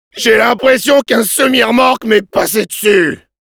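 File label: jeers, French; J’ai l’impression qu’un semi-remorque m’est passé dessus !; Réponses du Demoman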